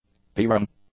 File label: "peering", English; nouns